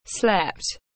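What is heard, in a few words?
"Slept", English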